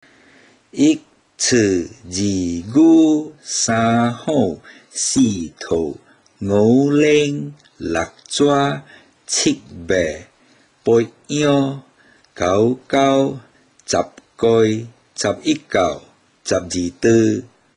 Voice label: Chinese Zodaic Recite, Teochew